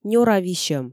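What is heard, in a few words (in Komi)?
нёра висьӧм, Komi, female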